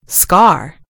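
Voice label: "scar", British English; gcompris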